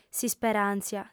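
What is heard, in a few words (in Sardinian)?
"s'isperàntzia", female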